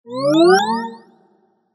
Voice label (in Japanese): 呪文を唱える; モノラル; 魔法効果音